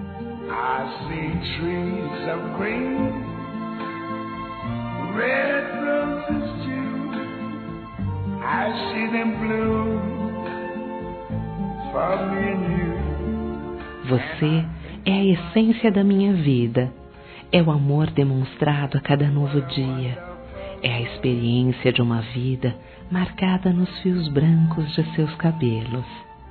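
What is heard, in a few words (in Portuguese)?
ANIVERSÁRIO DO VOVÔ, feminino, Telemensagens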